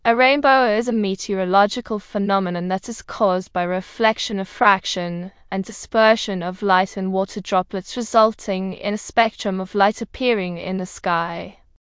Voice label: speaker, en GB; piper voices; vctk